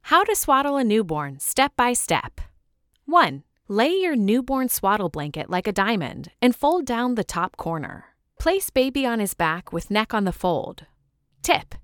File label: eLearning